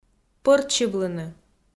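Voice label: "пӧртсьывлыны", Komi, female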